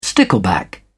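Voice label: "stickleback", British English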